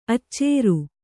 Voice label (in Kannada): "ಅಚ್ಚೇರು", Kannada